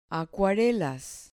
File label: "acuarelas", Spanish